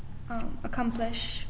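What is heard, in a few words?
"accomplish", Pakistani, female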